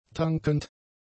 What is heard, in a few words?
"tankend", German; adjectives